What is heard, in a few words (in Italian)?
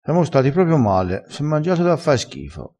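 "da fa schifo", dialettale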